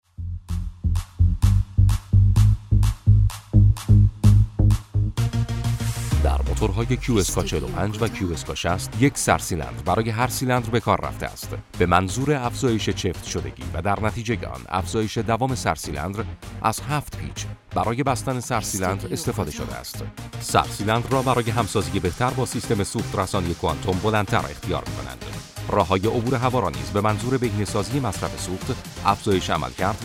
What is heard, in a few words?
narration